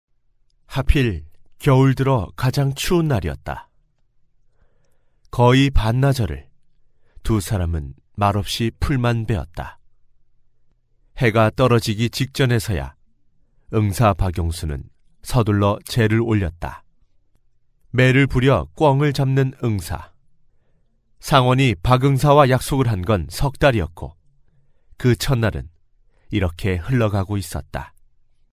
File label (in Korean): 내레이션 차분한